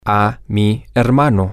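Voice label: to my brother, Spanish, male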